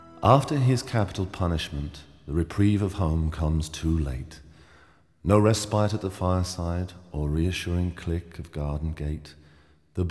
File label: narration